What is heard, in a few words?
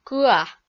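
"кыа" Komi, female; kpv audio dict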